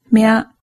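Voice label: "mya", Japanese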